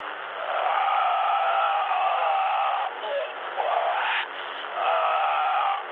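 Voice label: German damaged pilot, speech; War Thunder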